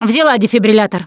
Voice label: defibrillator, russian; Producer

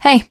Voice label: finale chat, teengirl, voice; Left 4 Dead 2

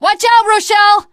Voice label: teengirl, voice; Left 4 Dead 2

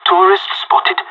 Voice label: radio enemy spotted, pirate; Counter-Strike Global Offensive

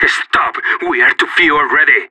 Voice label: killed friend, separatist; player vo

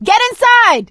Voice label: stay together inside, survivor voice; Left 4 Dead 2; producer

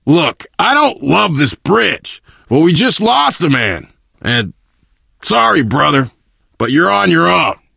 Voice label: initial meeting, survivor voice biker

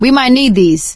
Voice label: take pills, survivor voice; Left 4 Dead 2; producer

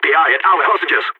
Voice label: hostages being taken, balkan; Counter-Strike Global Offensive

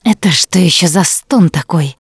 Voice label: heard witch, Russian; Voice; Producer

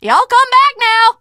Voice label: teengirl, voice; Left 4 Dead 2; l4d1 finale

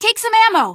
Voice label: ammo, female; npc; Garry's Mod; hl2

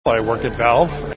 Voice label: "I work at Valve", taunt

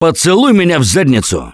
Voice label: reaction negative, russian; Gambler

